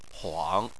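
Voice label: "huang", Chinese, third tone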